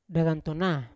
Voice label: "datantana", Yawa; to think

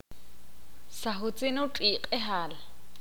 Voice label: ixil; ma ana el perro correr

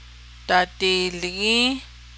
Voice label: melt it 1st person plural, Navajo

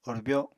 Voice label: "orbyo", Apatani

